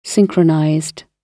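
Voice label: "synchronized", British English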